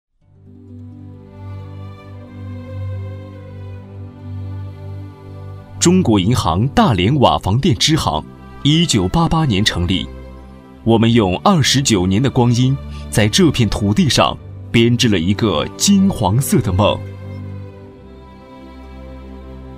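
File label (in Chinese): 男（正式）; 中国银行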